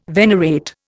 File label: "venerate", Indian